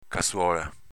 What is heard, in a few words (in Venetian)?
"cazuola", Veneto; s.f. cazzuola da muratore